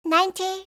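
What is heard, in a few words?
"90", English; eng suuji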